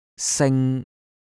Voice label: "sing1", cantonese